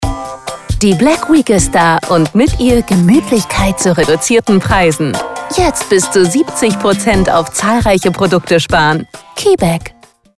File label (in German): radiowerbung; Kibek Inneneinrichtung; black friday; black week